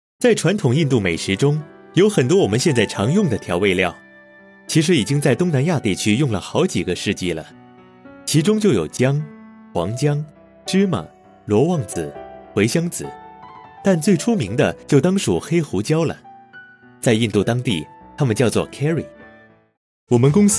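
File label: Mandarin, narration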